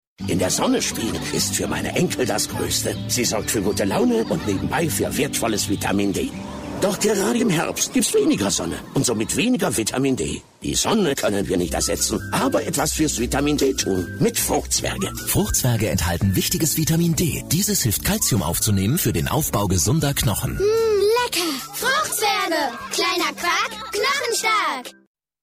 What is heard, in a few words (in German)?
Werbung